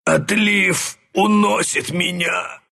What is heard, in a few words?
death, ru; dota2